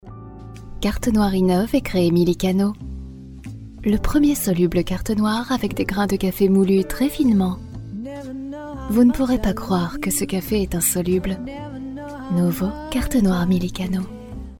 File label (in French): pub carte noire sensuel